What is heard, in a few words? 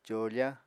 "còofa", Apatani